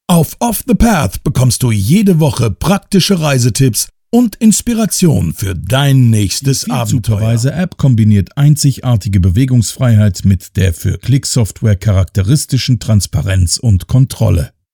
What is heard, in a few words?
male German